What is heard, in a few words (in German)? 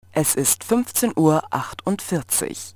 Zeitansage Funkarmbanduhr